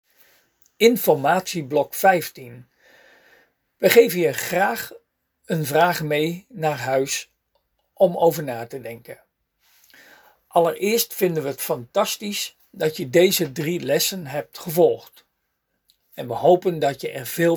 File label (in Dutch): spraak instructie